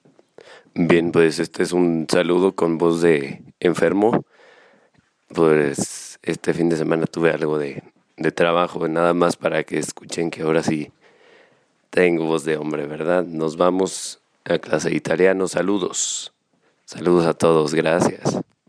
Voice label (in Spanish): con super voz